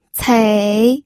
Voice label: "cei3", pinyin

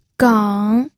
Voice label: "geng3", pinyin audio

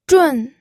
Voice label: "zhun4", pinyin

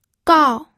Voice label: "gao4", pinyin audio

